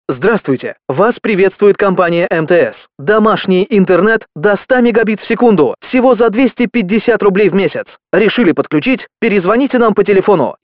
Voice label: russian ivr